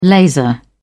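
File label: "laser", British English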